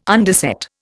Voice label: "underset", Indian